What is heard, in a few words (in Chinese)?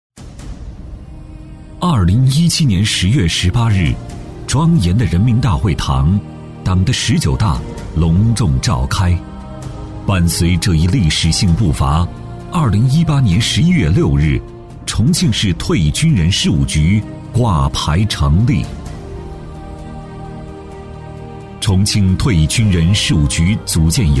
男, 宣传片, 重庆退役军人工作, 大气抒情; 男178-磁性舒缓; 沉稳大气